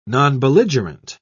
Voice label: "nonbelligerent", en uk